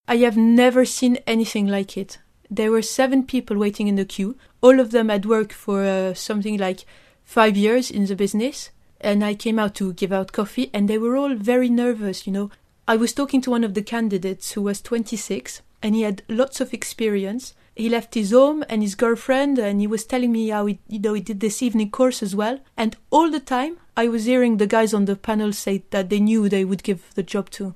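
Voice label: French English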